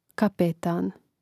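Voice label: "kapetan", Croatian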